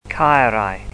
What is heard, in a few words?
"caerau", wales